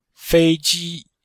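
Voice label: "fei1ji1", Chinese; Cours de Chinois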